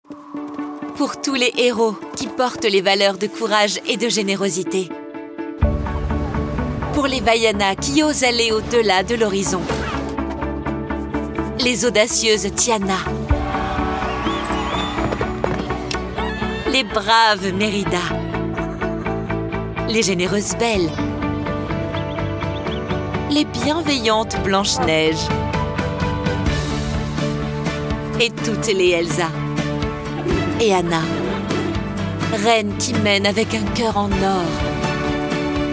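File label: French, commercial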